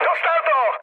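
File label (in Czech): Czech aircraft destroyed, mood medium; speech; War Thunder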